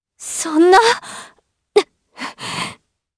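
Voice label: Vox Sad, Japanese; kingsraid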